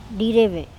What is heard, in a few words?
"Direbe", andamanese; sink